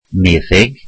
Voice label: welsh doctor

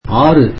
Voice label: tamil six

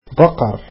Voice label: arabic cows

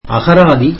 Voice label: tamil dictionary

